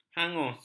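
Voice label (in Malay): "hangus", Malay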